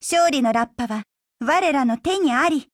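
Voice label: ATTACK Japanese; AK74M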